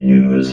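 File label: "use", robot voice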